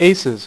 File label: "aces", Dealer's Speech; PowerPOKER Demo